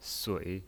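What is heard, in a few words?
"sui", Mandarin Chinese pinyin, third tone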